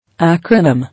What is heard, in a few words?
"Acronym", English